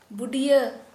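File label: Santali, female, axe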